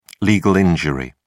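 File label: "legal injury", British English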